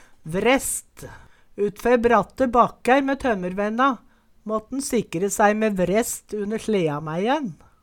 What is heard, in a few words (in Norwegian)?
"vrest", Numedalsmål